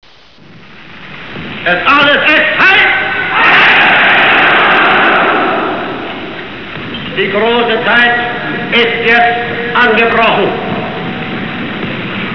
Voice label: axis radio

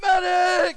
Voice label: us medic

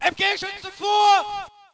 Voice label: ger move up mg

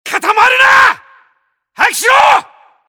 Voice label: jpn displace

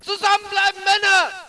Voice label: ger stick together

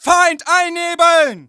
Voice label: ger smoke

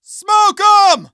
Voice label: us smoke

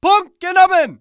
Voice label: ger point secure

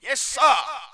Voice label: brit spread out

